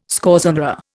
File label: "scorzonera", Indian